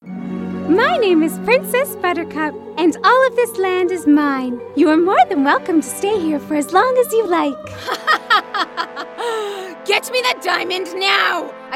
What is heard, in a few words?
animation